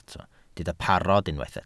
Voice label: "parod", Welsh